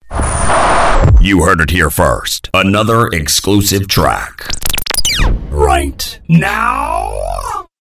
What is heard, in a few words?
Exclusive Track Dj Drop; Dj Drops 24 7